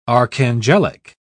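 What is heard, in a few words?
"archangelic", British English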